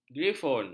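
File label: "griffon", Malay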